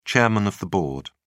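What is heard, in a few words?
"chairman of the board", British English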